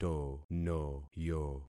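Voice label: "tonoyo", concatenated syllables; Affixing experiment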